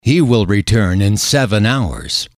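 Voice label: he will return in seven hours, English, male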